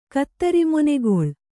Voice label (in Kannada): "ಕತ್ತರಿಮೊನೆಗೊಳ್", Kannada